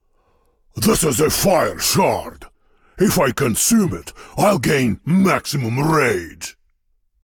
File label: Voice Monolog